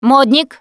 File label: name gambler, russian, Survivor Voice Producer